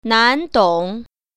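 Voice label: "nan2dong3", Mandarin Chinese Tone Pair Drills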